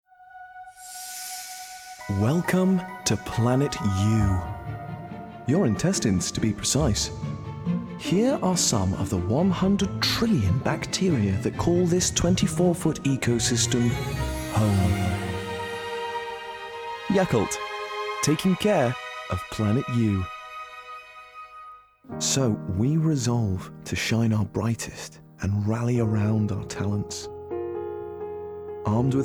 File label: voiceover British commercial showreel